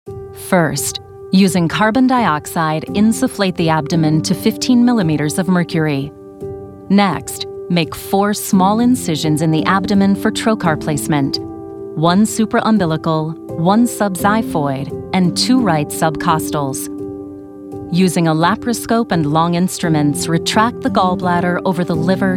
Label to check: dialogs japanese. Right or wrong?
Wrong